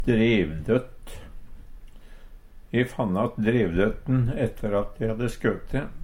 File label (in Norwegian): "drevdøtt", Numedalsmål; E fannt att drevdøtten etter at e hadde skøte.; Jakt, fangst, fiske